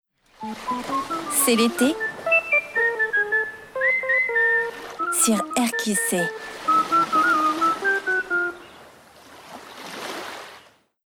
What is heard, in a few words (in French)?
Habillage voix off; RQC